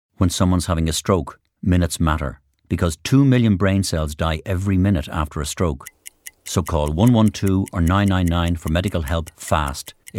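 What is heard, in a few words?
English Irish male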